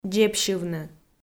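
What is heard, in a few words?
"дзебсьывны", Komi, female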